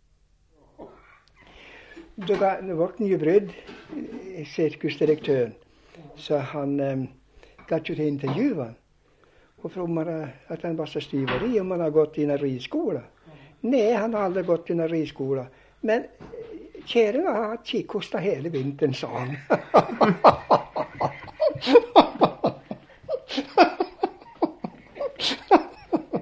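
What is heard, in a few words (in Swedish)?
Sundsjö Bodsjö Jämtland, dialekter; Folkminnen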